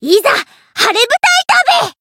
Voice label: encount, jp